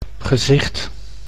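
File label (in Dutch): "gezicht", Dutch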